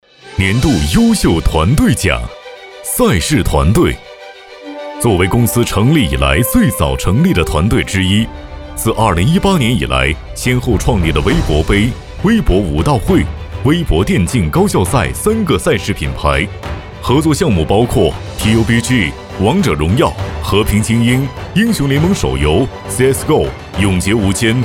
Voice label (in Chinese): 颁奖年轻颁奖风格